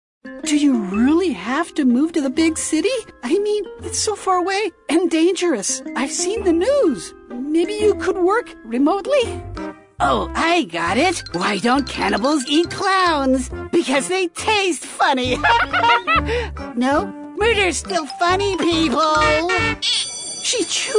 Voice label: Character Demo Reel